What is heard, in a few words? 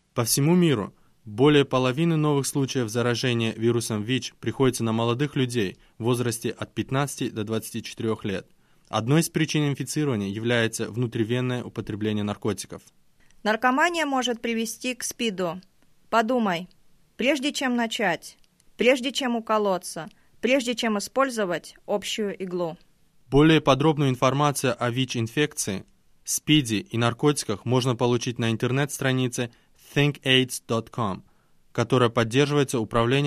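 spot russian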